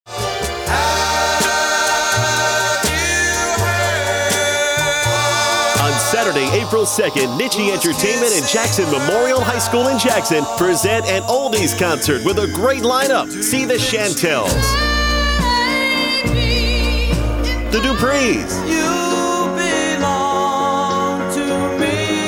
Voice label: Radio spot